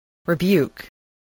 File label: "rebuke", english